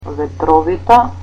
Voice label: "windy", Macedonian